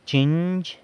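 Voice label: "chinzh", San Lucas Quiaviní Zapotec; bedbug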